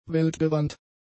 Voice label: "weltgewandt", German; adjectives